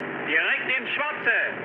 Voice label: bomb success, mood med, speech ge; War Thunder